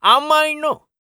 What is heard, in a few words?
oldman attack